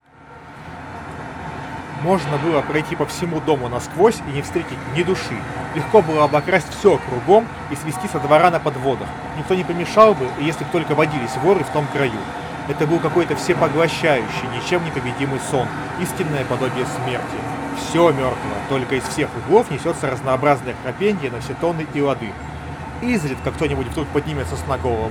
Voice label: Recorder noise; oneplus nord buds 4